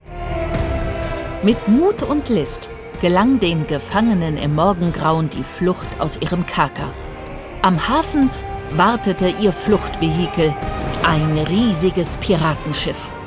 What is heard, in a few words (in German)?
Filmhoerspiel